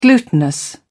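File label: "glutinous", English, British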